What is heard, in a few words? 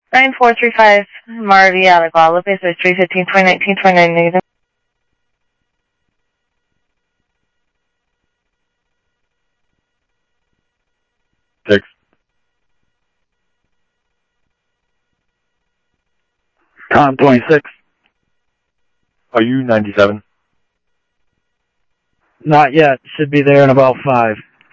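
Sheriff East County, scanner audio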